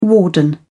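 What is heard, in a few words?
"warden", English, British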